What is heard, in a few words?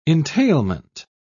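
"entailment", British English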